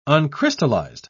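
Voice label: "uncrystallized", British English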